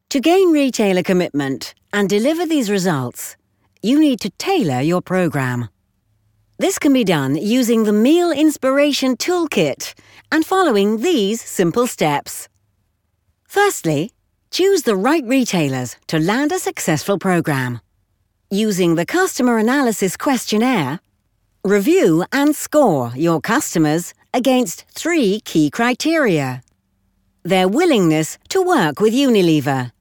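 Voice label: British narration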